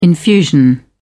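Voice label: "infusion", British English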